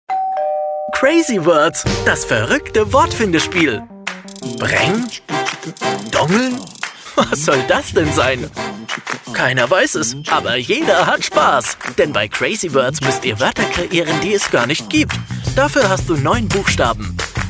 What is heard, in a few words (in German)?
Werbung Web; Ravensburger